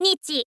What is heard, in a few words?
info girl; voice